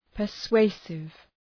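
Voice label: "persuasive", English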